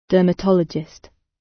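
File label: "dermatologist" British; Business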